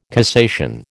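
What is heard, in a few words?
"cassation", American English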